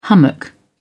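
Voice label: "hummock", English, British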